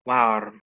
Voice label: "wearm", Anglo Saxon